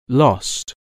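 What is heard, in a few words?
"lost", English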